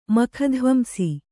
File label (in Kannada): "ಮಖಧ್ವಂಸಿ", kannada